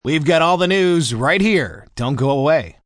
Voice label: Dont Go Away M, Broadcast, announcer, breakaway, male; VoiceBox